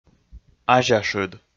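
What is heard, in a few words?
"азяшыд", Komi, male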